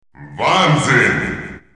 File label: "wicked sick", announcer, german